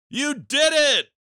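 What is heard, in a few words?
finish voice male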